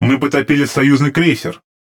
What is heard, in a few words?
RU Speech Destroy Ally Cruiser; World Of Warships; USSR